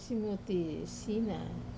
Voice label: "simuti sina" small citrus, Wamesa